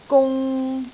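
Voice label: "gung3", Cantonese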